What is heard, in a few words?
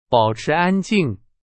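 Chinese; hsk3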